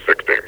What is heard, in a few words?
sixteen, combine soldier; Sourceforts v1.9.2; hl2; npc